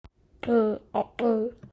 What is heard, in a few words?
Voiceless alveolar implosive